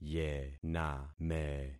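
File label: "yename", concatenated syllables; Affixing experiment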